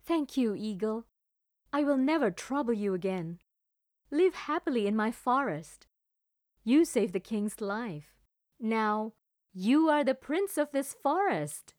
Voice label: ENGLISH CHARACTER